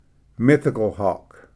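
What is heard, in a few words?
"mythical hawk", English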